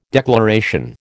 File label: "declaration", American